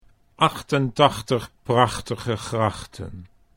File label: "prachtige grachten", Dutch